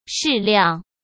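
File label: "适量", Mandarin Chinese; hsk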